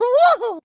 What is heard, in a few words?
"woo-ooh-hoo", voice; ooh ahh